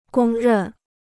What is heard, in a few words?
"公认", Chinese